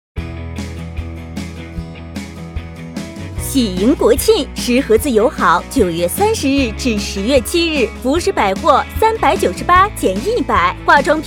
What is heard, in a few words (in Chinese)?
促销广告